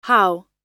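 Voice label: "how", English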